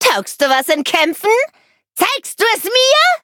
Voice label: loading, de; cookierun

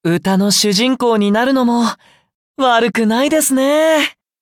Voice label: upgrade, ja; cookie run

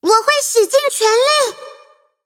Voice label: loading, zh-hant; cookie run

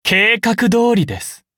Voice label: level up, ja; cookie run

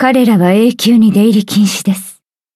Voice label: victory, ja; Cookie Run

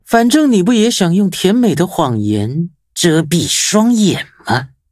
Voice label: upgrade, zh-hant

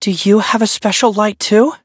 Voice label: level up, en; Cookie Run

